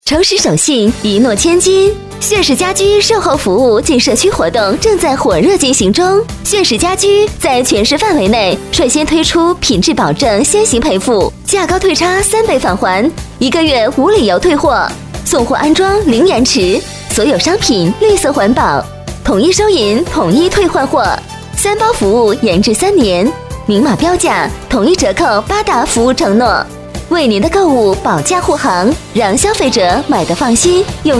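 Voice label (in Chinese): 促销女-亲切; 泫氏家居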